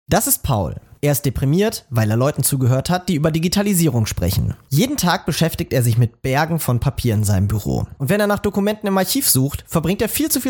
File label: GERMAN